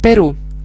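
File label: "peru", Italian; geography; gcompris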